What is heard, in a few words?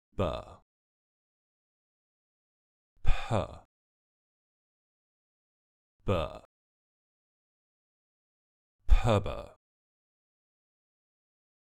combination plosive, received pronunciation